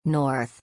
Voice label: "North", English